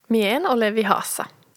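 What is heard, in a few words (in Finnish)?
"Mie en ole vihassa", Finnish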